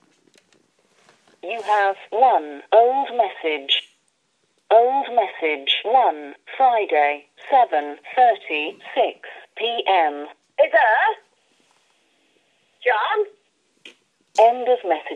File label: answer machine